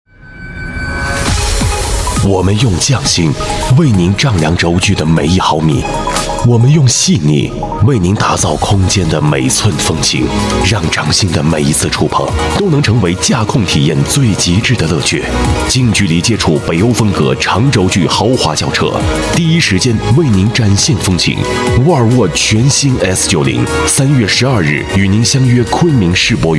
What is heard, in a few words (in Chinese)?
男 年轻磁性 广告; 沃尔沃 品质